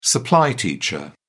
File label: "supply teacher", en uk